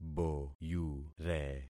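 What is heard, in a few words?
"boyure", concatenated syllables; Affixing experiment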